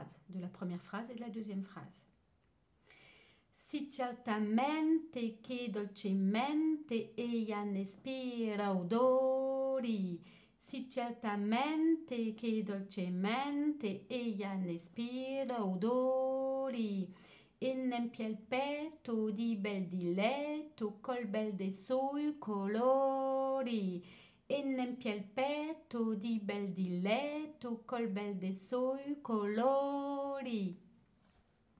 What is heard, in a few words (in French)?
1ière voix 2ième strophe texte dit